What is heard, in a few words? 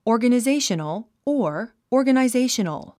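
"organizational", English word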